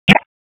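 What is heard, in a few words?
Raleigh Police North District Tactical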